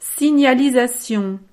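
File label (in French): "signalisation", French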